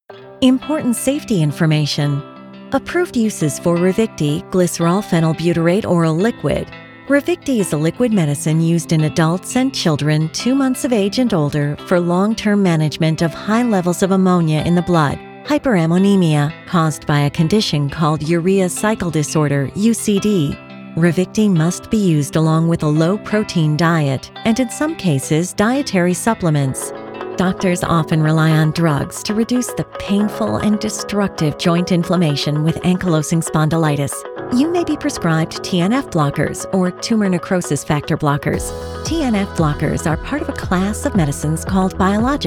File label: medical, Medical Narration